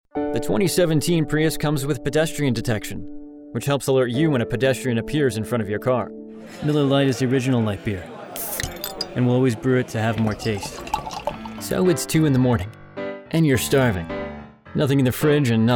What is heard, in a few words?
Commercial